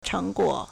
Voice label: "cheng2guo3", Chinese